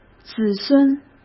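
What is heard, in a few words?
"子孙", Mandarin Chinese; hsk; chinese-flashcards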